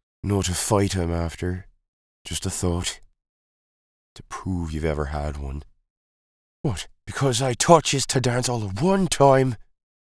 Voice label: Irish Accent